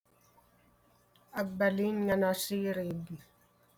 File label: Ilocano